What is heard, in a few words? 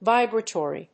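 "vibratory", English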